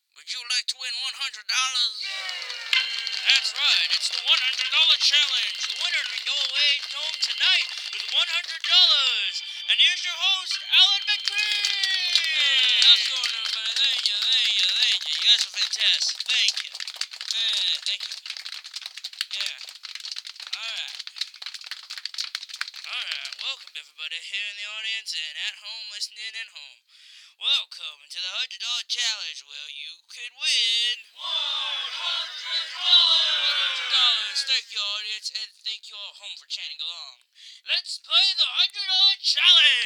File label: Old Radio Game Show